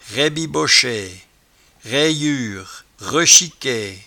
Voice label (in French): "raibibochaie", patois jura